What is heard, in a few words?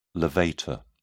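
"levator", British English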